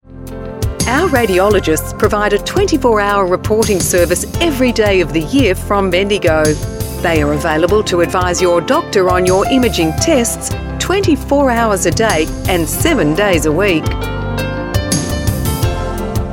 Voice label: medical messages on hold

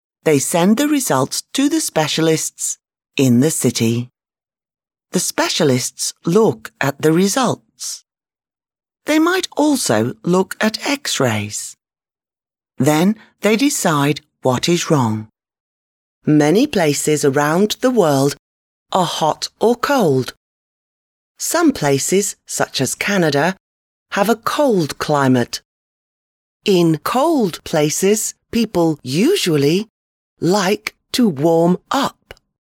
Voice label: audio book voice over

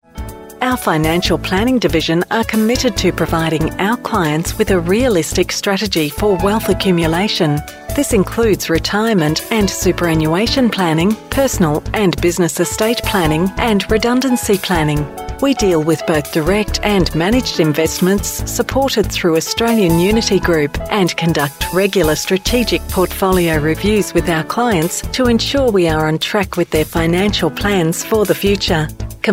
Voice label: accounting finance messages on hold

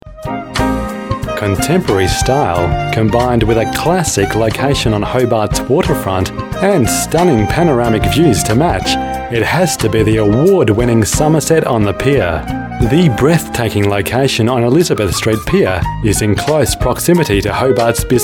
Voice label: on hold

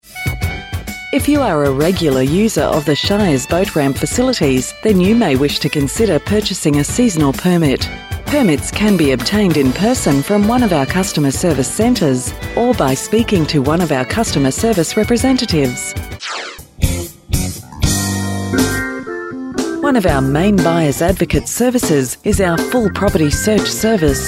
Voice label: onhold

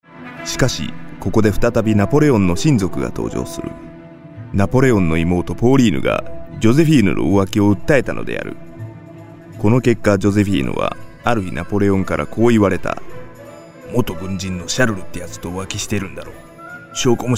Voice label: japanese vo

